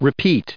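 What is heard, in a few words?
"repeat", American English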